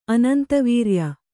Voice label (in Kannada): "ಅನಂತವೀರ್ಯ", kannada